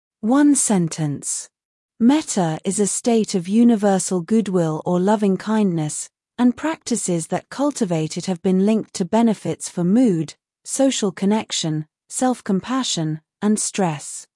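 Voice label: metta sentence British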